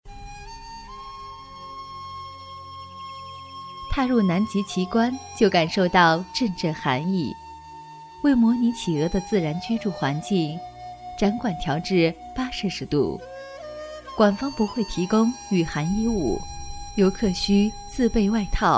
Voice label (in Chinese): 女 纪录片解说 温和 淑女 亲切; 南极奇观